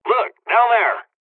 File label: dialog, dialogs serbian; War Thunder